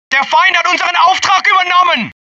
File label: german failure, German; mohaa